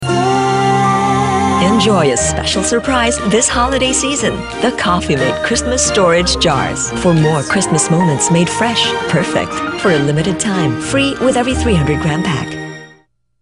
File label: English (Promo)